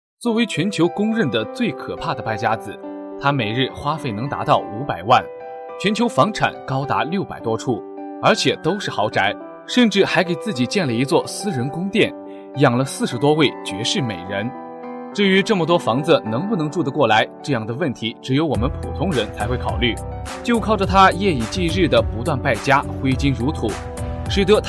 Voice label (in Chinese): 年轻纪录片